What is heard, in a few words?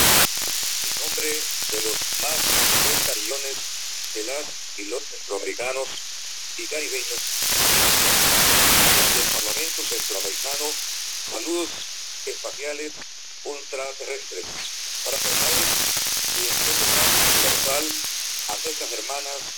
male